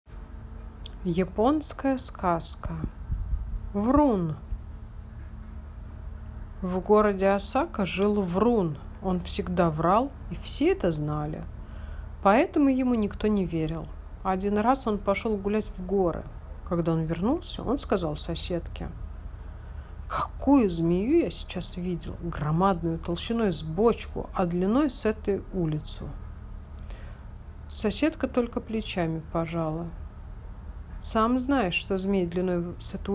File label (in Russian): yaponskaya skazka; Vrun